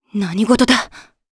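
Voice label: Vox Japanese; Hilda; kingsraid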